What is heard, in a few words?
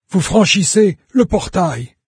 portal, fr, NARRATOR; Fate